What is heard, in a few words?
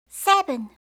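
"seven", English; eng suuji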